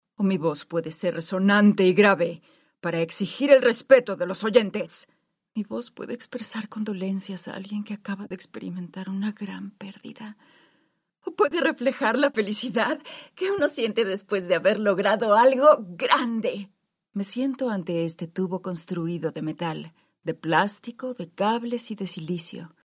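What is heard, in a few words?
Spanish Latin America female character; spanish americas voice over talent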